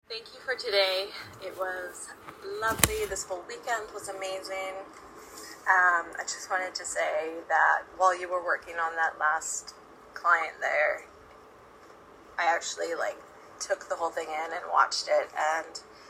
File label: testimonial